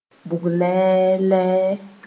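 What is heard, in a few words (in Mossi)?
"bʋglɛɛlɛɛ", Mooré; misfortune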